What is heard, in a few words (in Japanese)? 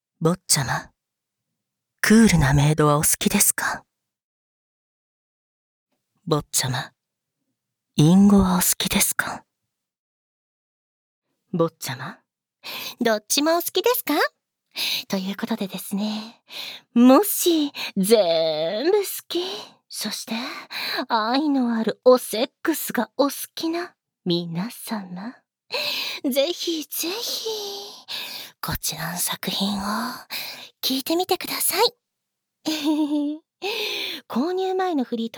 フリートーク ご購入前の PR